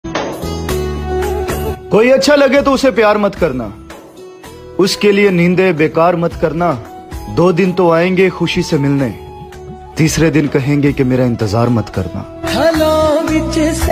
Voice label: Hindi Shayari